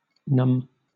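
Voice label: "numb", British English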